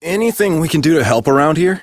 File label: Citizen Male, en; Citizens; Warframe; Mall